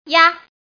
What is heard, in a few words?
Woman